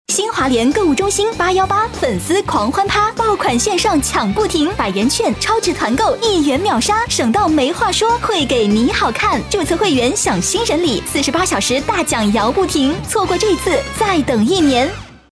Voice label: 广告 样音

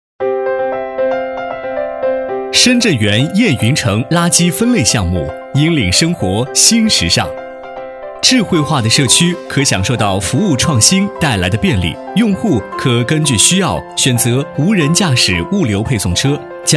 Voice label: 男 课件解说 垃圾分类项目; 深圳园燕云城

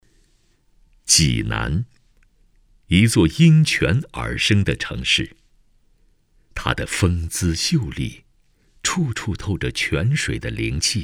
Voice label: 男 宣传片 地铁; 济南地铁

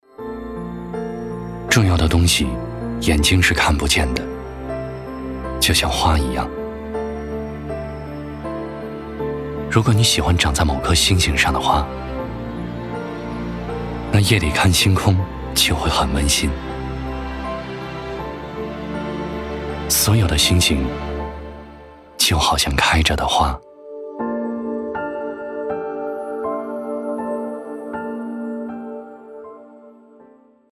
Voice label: 男 走心独白

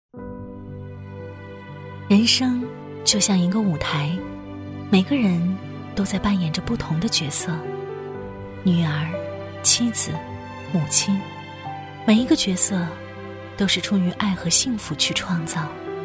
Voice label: 女 微电影

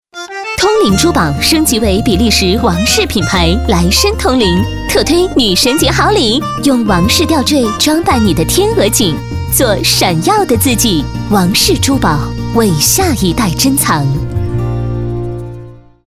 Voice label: 女 电台促销 珠宝; 通灵珠宝

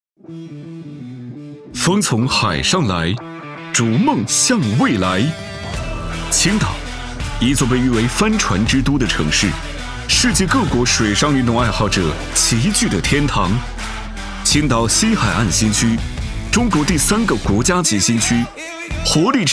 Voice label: 男 活力现代; 唐岛湾

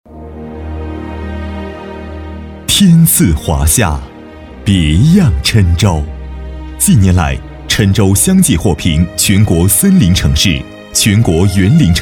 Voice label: 男 专题 环境监测站

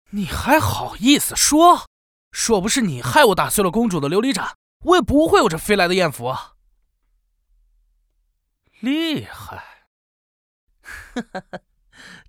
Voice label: 男 纨绔桀骜 男青年

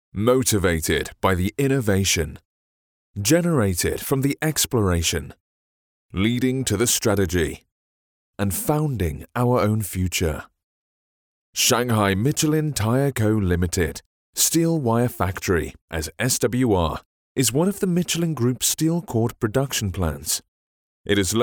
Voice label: 米其林解说词 配音

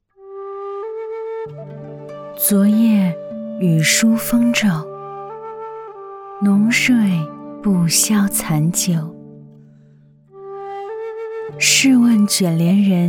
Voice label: 女 古风演绎

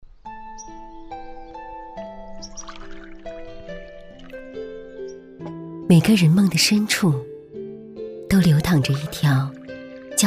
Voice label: 女 纪录片 自然温婉 幽静古镇

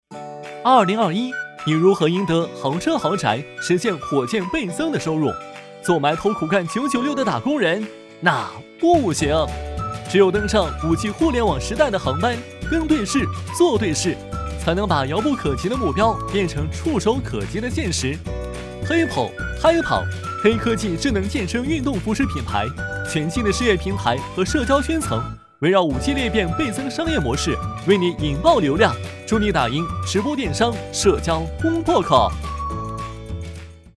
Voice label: 男 MG 动画文案解说词; HIPO

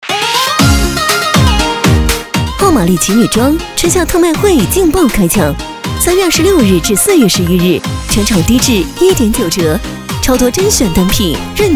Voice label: 女 高端促销 女装

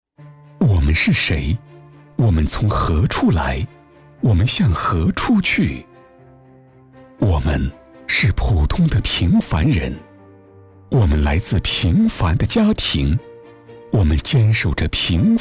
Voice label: 男 颁奖词; 白衣天使团队

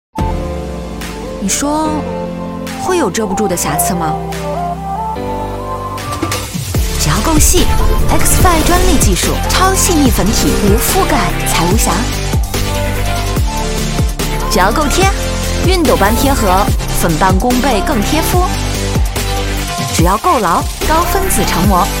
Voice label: 女 广告 遮瑕 轻松 TVC; UODO

